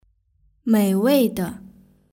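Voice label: "meiweide" Chinese word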